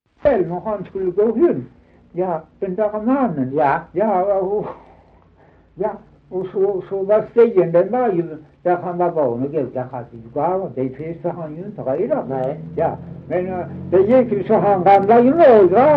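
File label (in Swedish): Dalby Skåne, dialekter; Folkminnen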